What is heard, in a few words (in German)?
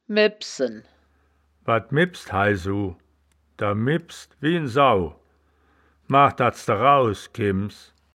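"mebsen", wittlicher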